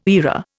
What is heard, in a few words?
"era", Indian